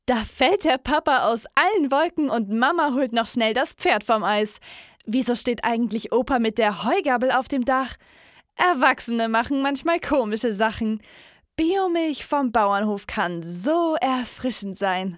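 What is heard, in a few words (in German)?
Mädchen; Biomilch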